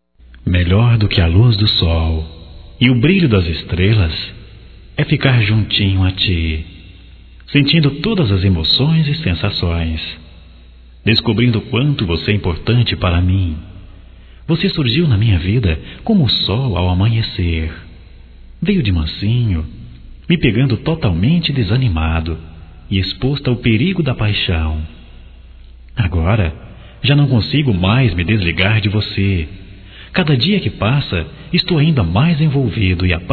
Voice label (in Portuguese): ROMANTICA GERAL, Românticas masc